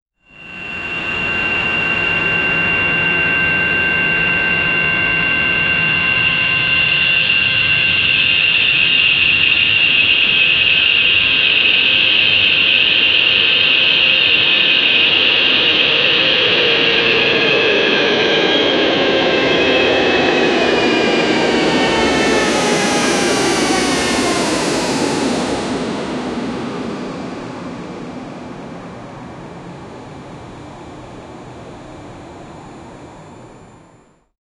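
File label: an old portugese air traffic, airport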